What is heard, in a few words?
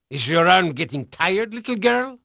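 Dutch male, voice; Pirates Of The Caribbean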